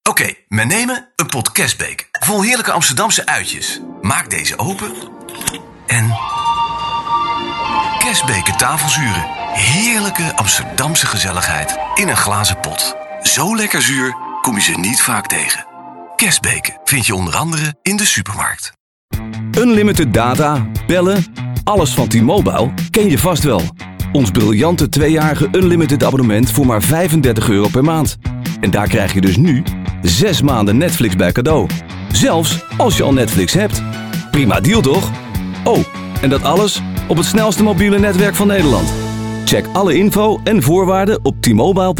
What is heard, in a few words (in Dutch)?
Commercial